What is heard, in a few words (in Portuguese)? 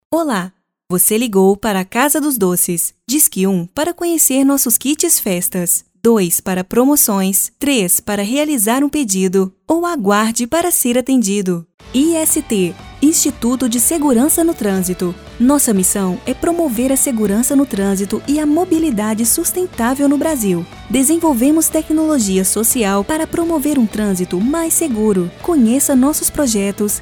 espera telefonica voz locutora